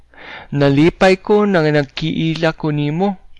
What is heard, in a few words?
meet, cebuano